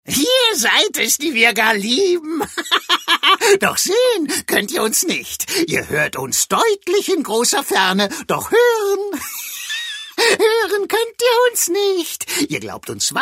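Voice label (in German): Charge, Sprecher, Männer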